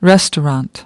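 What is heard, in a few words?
"Restaurant", English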